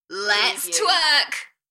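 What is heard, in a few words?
UK female, Enthusiastic, DJ vocals; Lets twerk; beanstalk audio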